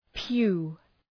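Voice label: "pew", English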